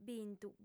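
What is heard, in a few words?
"bintu", female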